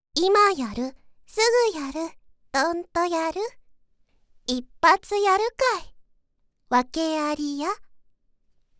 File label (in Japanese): タイトルコール; うさみさん（おはようウサギの看護婦さん）